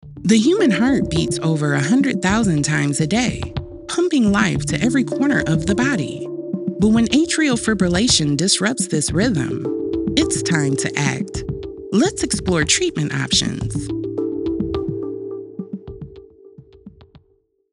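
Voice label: E Learning Medical Narration Clear Precise Professional; Verbal VOasis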